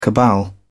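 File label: "cabal", British English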